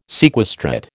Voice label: "sequestrate", American